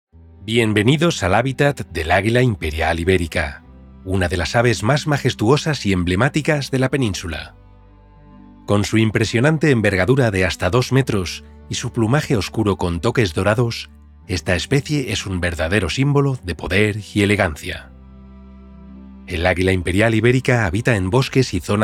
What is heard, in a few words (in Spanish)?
potente